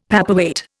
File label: "paperweight", Indian; Dictionary